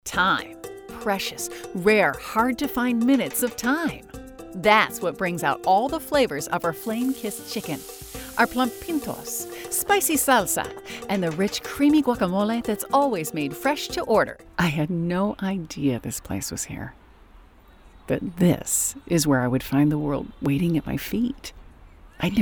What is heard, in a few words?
commercial